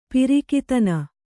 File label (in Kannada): "ಪ್ರಾಕ್ತನ", kannada